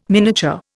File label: "miniature", Indian